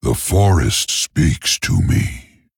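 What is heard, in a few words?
Male Tauren TRIGGER WHISPERING WOODS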